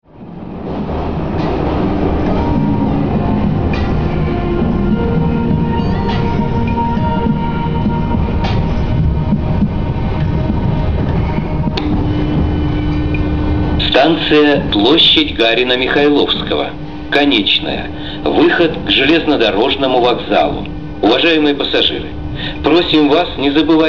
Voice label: male